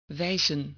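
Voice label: "wijzen", Dutch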